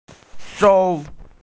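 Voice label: "sou1" Chinese, female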